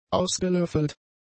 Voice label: "ausgelöffelt", German; adjectives